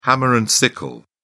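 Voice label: "hammer and sickle", British English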